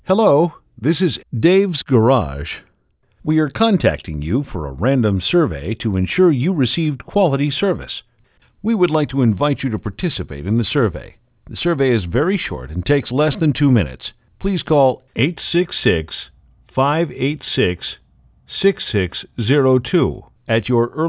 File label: Outbound Message Automotive; Survey